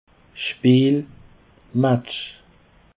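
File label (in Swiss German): "speel", Alsatian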